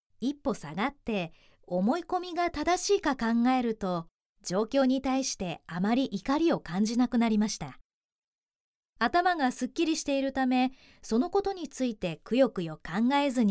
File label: japanese e-learning